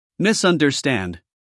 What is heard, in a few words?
"misunderstand", US English, male; everyone-can-use-english